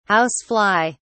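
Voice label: "house fly", British English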